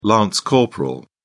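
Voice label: "lance corporal", British English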